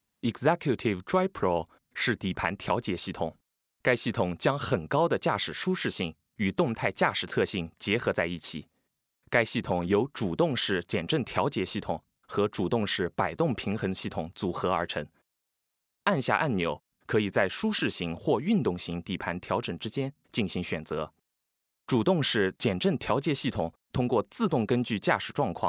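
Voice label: chinese mandarin male narration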